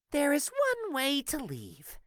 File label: voice prologue; monomeow